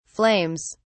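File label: "flames", British English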